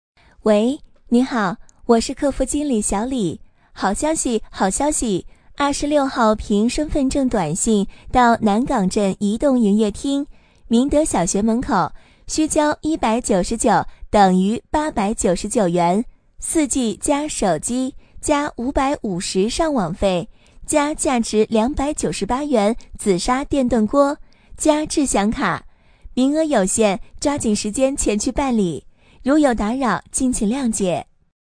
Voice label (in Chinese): 女 彩铃 群呼